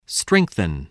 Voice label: "strengthen", spelling